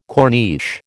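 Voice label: "corniche", American English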